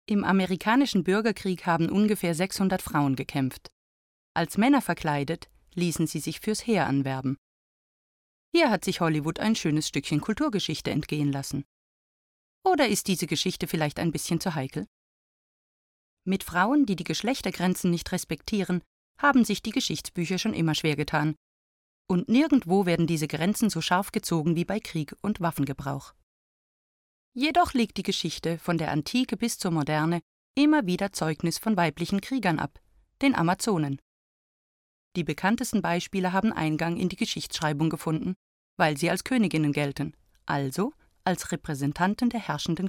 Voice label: voice sample history german